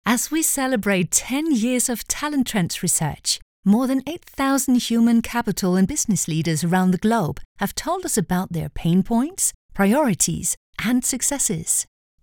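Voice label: Danish, female, English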